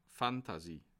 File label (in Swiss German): "fantasy", alsace